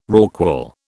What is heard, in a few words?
"rorqual", British